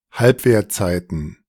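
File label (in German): "Halbwertzeiten", German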